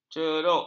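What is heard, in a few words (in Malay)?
"ceruk", Malay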